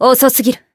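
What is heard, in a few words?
Voice attack Japanese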